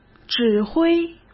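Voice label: "指挥", Mandarin Chinese; hsk; chinese-flashcards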